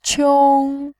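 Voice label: "qiong", Mandarin Chinese, first tone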